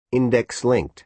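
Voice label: "index-linked", American English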